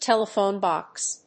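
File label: "TELEPHONE BOX", English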